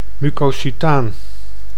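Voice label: "mucocutaan", Dutch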